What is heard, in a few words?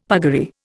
"puggaree", Indian; Dictionary